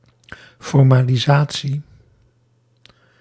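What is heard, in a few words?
"formalisatie", Dutch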